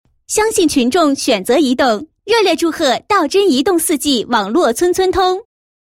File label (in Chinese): 女, 电讯广告; 移动公司广告宣传